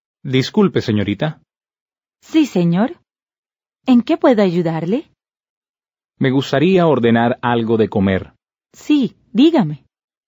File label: Dialogue, Spanish